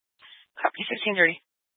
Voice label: Fire Dispatch